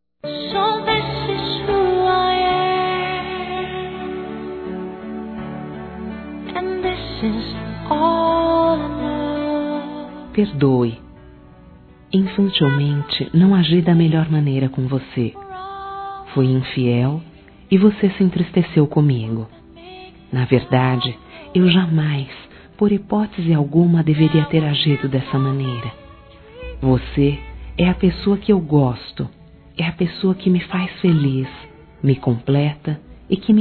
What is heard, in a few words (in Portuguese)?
Desculpas Por Ter Te Traido Femin, Telemensagens; Telemensagens 2017